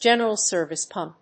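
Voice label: "general service pump", English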